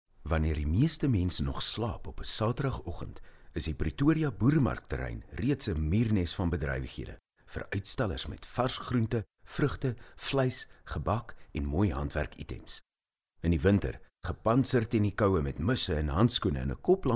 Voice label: Afrikaans Narrator

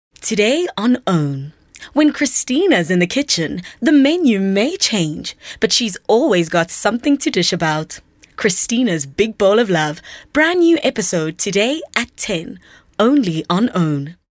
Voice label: English Promo

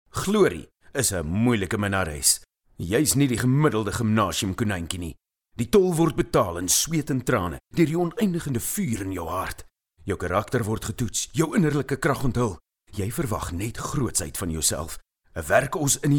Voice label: Afrikaans Energetic

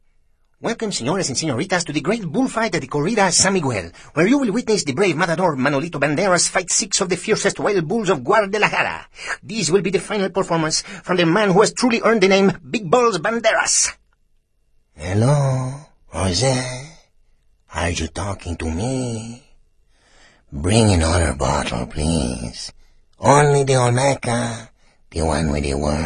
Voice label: Accent Mexican

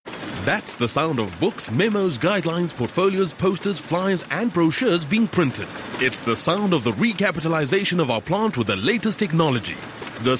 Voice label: English Medium Sell

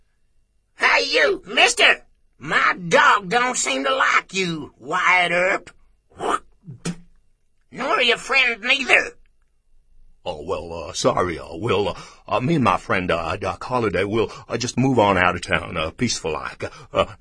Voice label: Accent American

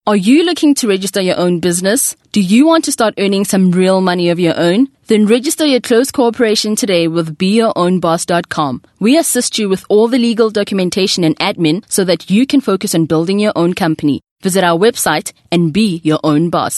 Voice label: English Corporate

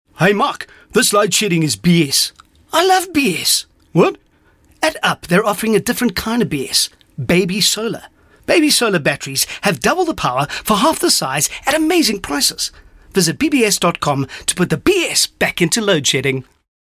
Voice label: English Conversational

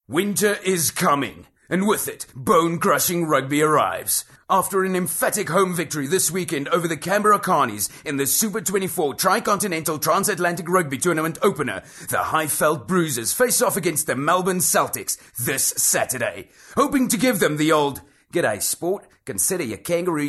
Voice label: English Hard Sell

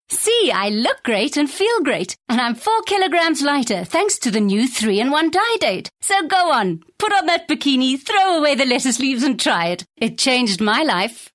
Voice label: English Bright

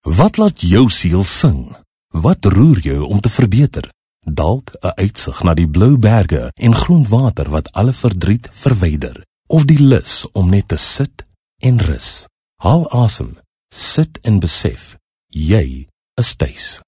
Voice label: Afrikaans Corporate

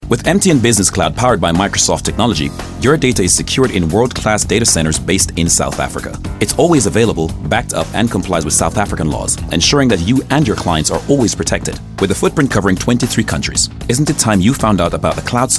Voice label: English Bright

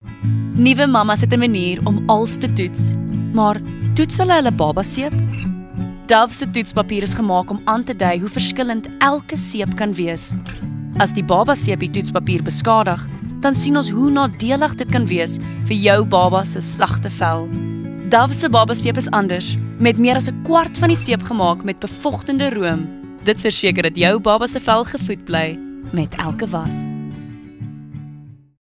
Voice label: Afrikaans Soft Sell

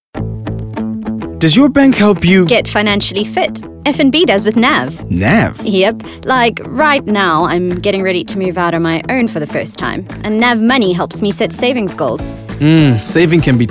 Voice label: English Conversational

